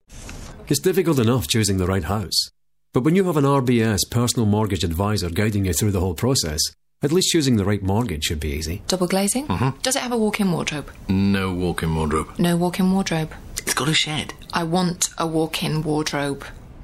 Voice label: Commercial